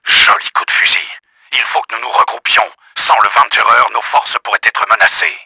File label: VICTORY, french; Battle Engine Aquila; XBOX